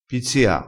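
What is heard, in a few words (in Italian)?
"pizzia", dialettale, crespinese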